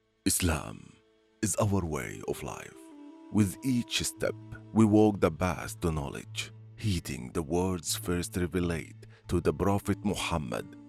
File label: Audition English Language; Islamic school